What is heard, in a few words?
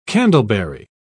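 "candleberry", British English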